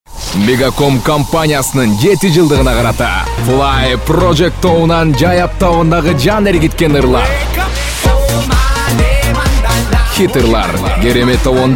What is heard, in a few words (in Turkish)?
Kirgizca Erkek; seslendirme